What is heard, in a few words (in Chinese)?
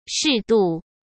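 "适度", Mandarin Chinese; hsk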